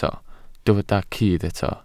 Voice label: "cudd", Welsh

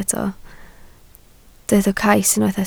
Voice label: "cais", Welsh

